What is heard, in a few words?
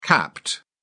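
"capped", English, British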